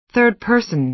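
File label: "third person", American English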